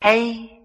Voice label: "hey" male